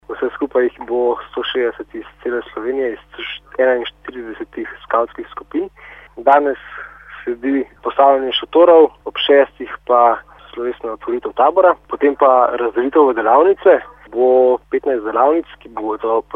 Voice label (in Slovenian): radio